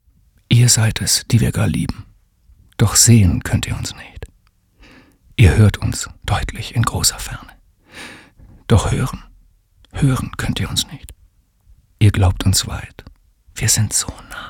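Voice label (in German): traurig, Sprecher, Männer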